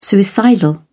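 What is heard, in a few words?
"suicidal", British English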